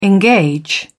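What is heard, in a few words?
"engage", British English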